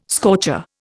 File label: "scorcher", Indian